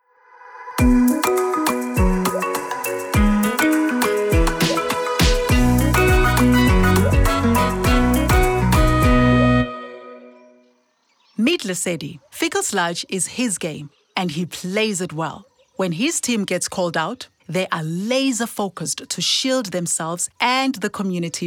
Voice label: Service Providers Emptying English; Sanitation; Emptying and Transport English Soundbites